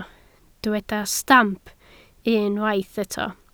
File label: "stamp", Welsh